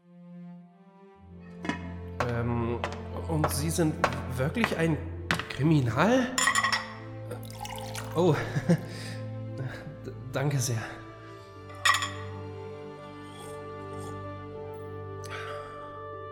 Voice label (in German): Sprechprobe Todesangst